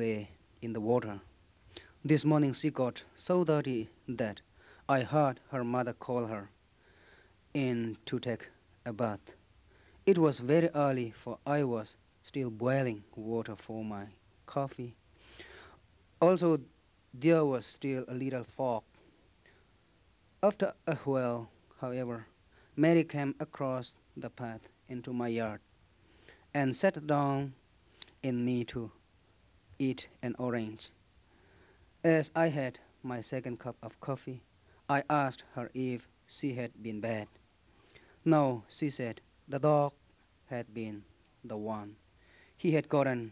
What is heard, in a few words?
Chinese Burma